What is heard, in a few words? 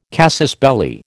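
"casus belli", American English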